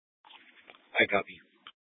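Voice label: Police Dispatch, scanner audio recording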